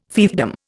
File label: "fiefdom", Indian